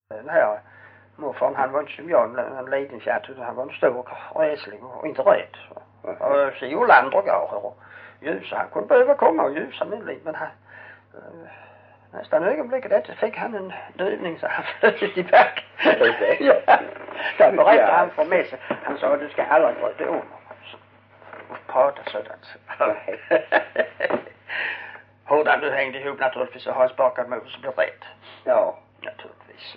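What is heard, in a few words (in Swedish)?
Hörja Skåne, dialekter; Folkminnen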